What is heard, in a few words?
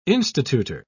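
"institutor", British English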